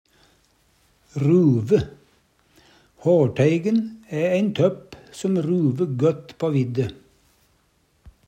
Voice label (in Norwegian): ruve, Numedalsmål